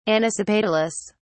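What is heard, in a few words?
"anisopetalous", British English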